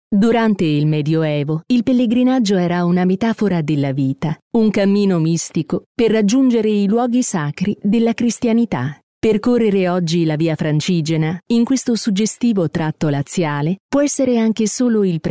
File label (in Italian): audioguide